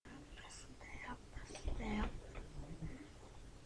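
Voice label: "staff", spoken word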